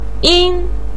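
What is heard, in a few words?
"yin1" Chinese syllable, pinyin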